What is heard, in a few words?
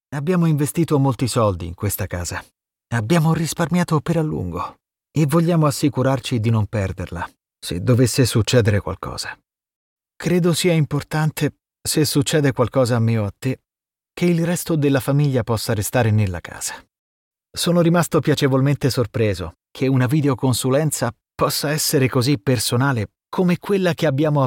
italian voice reality show interview